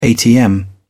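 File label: "atm", English, uk British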